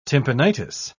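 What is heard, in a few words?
"tympanitis", English, British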